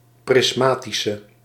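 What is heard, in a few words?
"prismatische", Dutch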